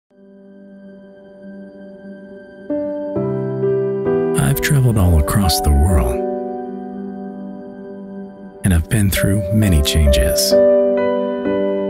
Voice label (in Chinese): 外籍英男, 羊绒毛衣广告, 深沉磁性; State Cashmere